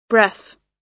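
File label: "breath", US English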